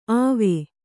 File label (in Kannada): "ಅವ್ವೆ", Kannada